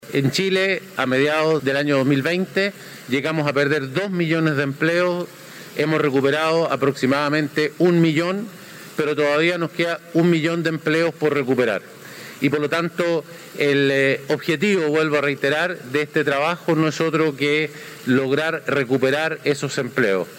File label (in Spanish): cuña